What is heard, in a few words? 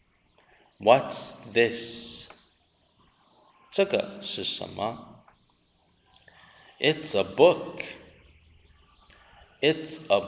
conversation